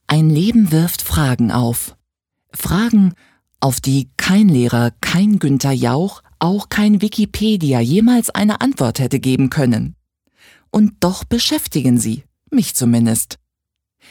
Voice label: Schauspiel Neutral

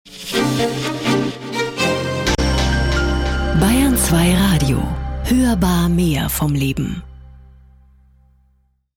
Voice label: Fremdtake Neutral

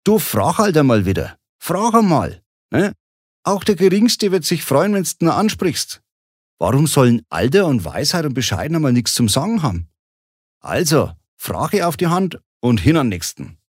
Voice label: Dialekt Fraenkisch

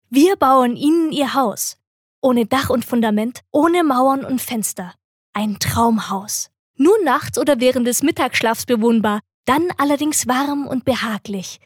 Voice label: Werbung Dynamisch

